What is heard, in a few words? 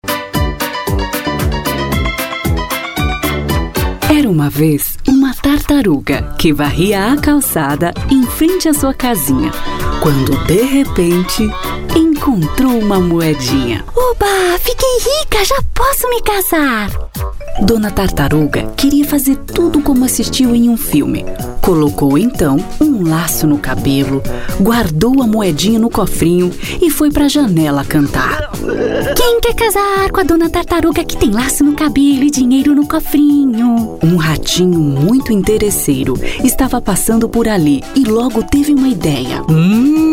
portuguese brazilian animation